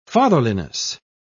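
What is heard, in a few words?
"fatherliness", British English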